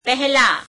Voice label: gujarati before; પહેલાં [peheeleām]

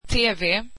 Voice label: swedish television

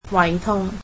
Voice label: cantonese stomach ache

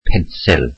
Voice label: welsh pencil

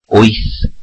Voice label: welsh eight

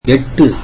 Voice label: tamil eight

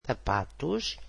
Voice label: portuguese shoes

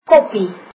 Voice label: cup, afrikaans

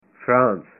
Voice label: "France", punjabi

